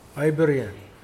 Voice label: "my friend", Mokilese

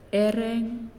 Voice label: "Blood", Naasioi; unitech

